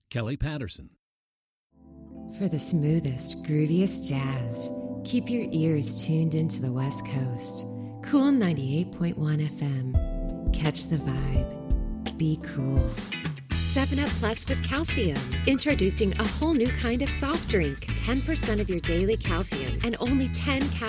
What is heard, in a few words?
commercial